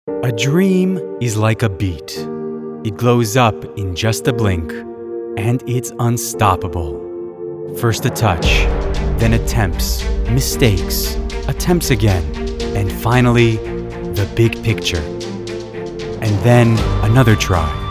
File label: man american